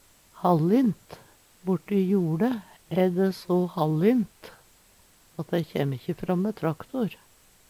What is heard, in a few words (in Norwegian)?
hallint, Numedalsmål; Borti joLe æ dæ so hallint at dei ikkje kjæm fram mæ traktor.